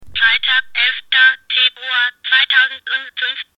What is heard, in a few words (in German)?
Datumansage sprechende Armbanduhr